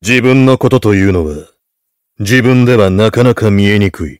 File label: heavy cheers